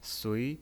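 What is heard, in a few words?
"sui", Mandarin Chinese pinyin, second tone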